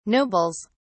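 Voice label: "nobles", British English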